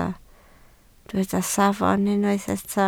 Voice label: "safon", Welsh

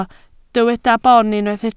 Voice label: "boon", Welsh

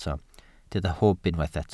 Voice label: "hwb", Welsh

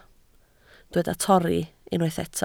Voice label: "torri", Welsh

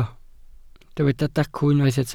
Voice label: "dacw", Welsh

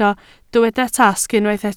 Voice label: "tasg", Welsh

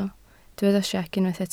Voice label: "siec", Welsh

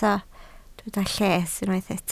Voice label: "lles", Welsh

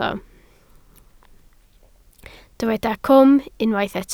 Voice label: "cwm", Welsh

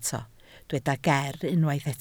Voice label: "ger", Welsh

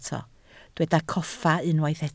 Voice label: "coffa", Welsh

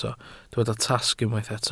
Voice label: "tasg", Welsh

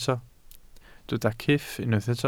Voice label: "cyff", Welsh

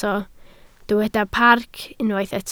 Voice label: "parc", Welsh

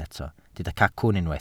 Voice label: "cacwn", Welsh

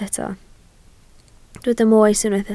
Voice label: "moes", Welsh

